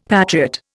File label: "patriot", Indian; Dictionary